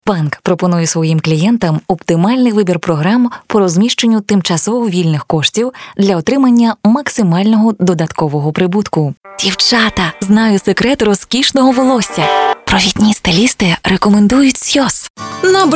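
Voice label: Ukrainian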